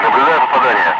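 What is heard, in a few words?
Russian speech, Ka-50 Wingman; target hit; DCS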